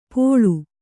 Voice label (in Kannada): "ಪೋಳು", Kannada